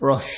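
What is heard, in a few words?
"rush", Nigerian Pidgin, male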